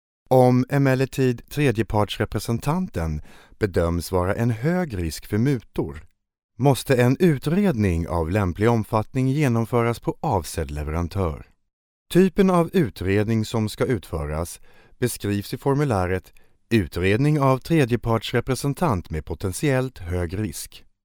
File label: male swedish voice over talent narrative